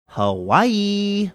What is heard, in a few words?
Hawaii funny